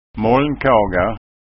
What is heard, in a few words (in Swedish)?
"molnkaga", skanska ord